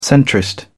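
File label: "centrist", British English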